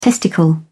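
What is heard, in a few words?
"testicle", English, British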